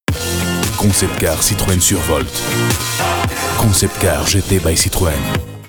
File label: FRENCH; CITROEN; tv